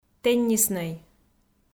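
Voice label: "тенниснӧй", Komi, female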